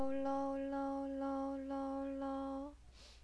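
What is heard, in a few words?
"low", spoken word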